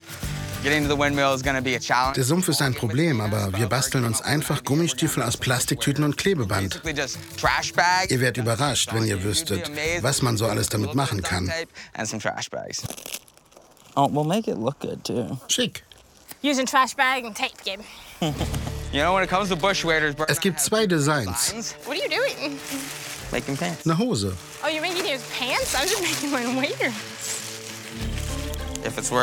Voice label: Voiceover